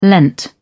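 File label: "lent", British English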